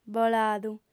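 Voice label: "boladu", female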